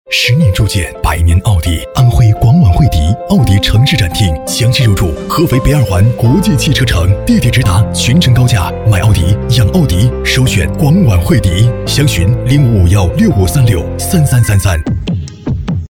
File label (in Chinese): 男, 汽车广告, 品质; 奥迪; 大气恢弘 高端大气